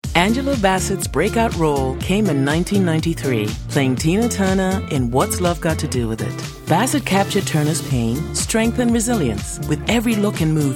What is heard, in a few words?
Narration